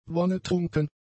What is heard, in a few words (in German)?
"wonnetrunken", German; adjectives